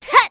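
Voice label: Vox Casting, korean; voices; heroes; Glenwys; kingsraid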